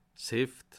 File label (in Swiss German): "heft", alsace